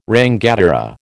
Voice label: "rangatira", American

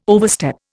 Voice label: "overstep", Indian

